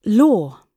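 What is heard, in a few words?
"law", British English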